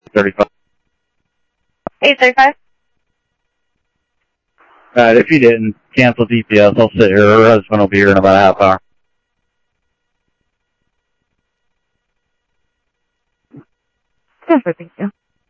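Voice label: Lakes Sheriff Lake Patrol, scanner audio trunk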